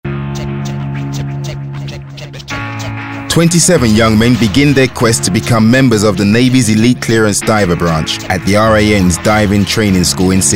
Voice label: Documentary; Navy